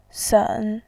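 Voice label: "sen", Mandarin Chinese, third tone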